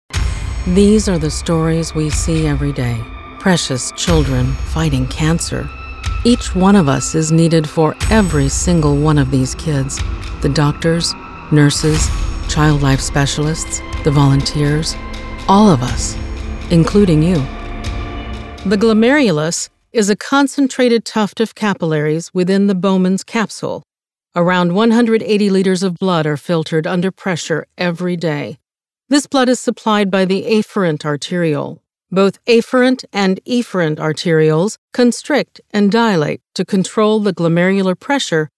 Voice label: Medical Narration